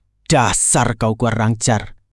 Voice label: indonesia, indonesian negative phrases

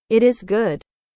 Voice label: english

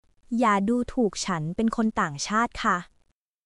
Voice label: Thai, basic thai phrases

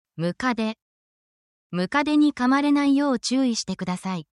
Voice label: japanese for living creatures

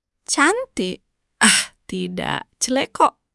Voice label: indonesian phrases

